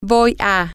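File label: I am going to, Spanish, female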